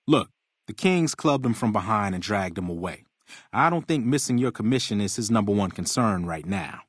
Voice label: male adult gambler asks